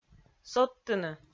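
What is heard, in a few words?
"содтыны", Komi-Zyrian, female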